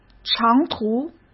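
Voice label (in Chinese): "长途", Mandarin Chinese; hsk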